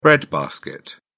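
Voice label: "bread basket", British English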